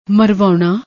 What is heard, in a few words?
"marvaouna", Punjabi; ਮਰਵਾਉਣਾ